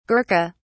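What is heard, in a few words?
"gurkha", British English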